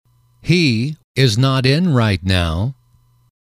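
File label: "he is not in right now", English, male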